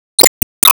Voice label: Emo Glad